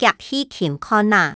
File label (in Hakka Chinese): "遽去尋看啊", 客語